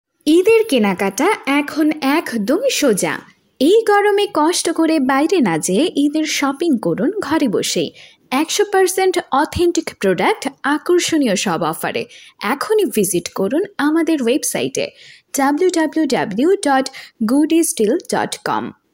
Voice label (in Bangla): website promotion bangla voice